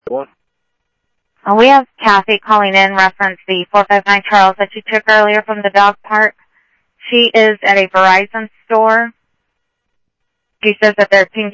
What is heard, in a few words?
Sheriff, scanner audio